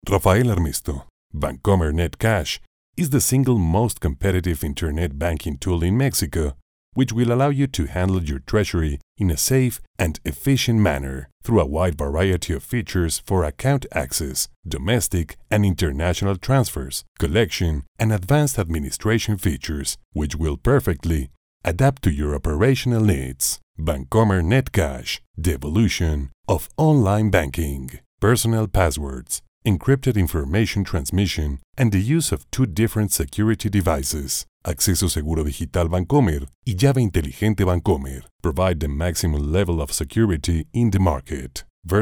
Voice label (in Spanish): ingles de Pochutla